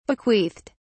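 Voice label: "bequeathed", English, British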